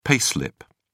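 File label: "pay slip", British English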